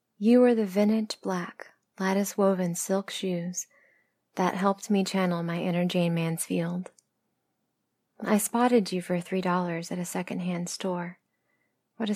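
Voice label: original poetry reading, female; An Ode To Departed Shoes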